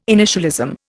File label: "initialism", Indian